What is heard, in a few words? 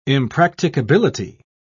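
"impracticability", British English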